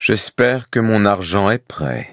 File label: victory, fr